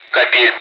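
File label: Speech, Russian, air traffic control; Callsign; DCS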